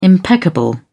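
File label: "impeccable", British English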